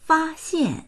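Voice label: "fa1xian4", Mandarin Chinese; 发现; 発見する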